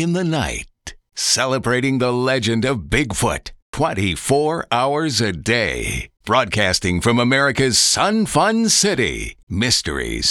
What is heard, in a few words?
Network Dry no music no effects; imaging